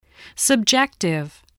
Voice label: "subjective", spelling